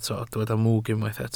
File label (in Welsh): "mwg", Welsh